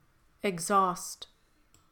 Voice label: "exhaust", English; clusters intermediate level